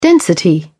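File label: "density", British English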